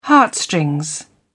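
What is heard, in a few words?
"heartstrings", British English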